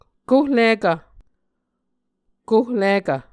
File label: "kohlikv", Muscogee; Mvskoke Words with Voicing Consonants; A Dictionary of Creek/Muskogee; SIL International